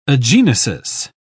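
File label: "agenesis", British English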